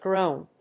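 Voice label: "groane", English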